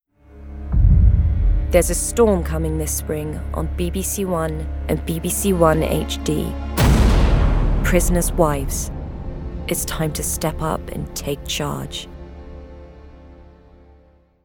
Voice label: Promo; Prisoners Wives